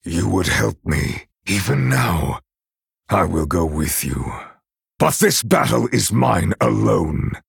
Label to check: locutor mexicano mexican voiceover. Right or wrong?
Wrong